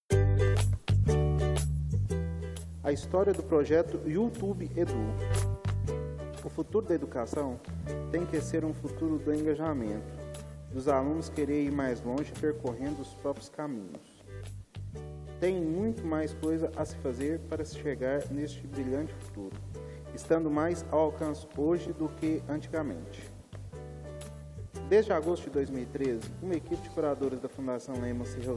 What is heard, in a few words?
Audiobook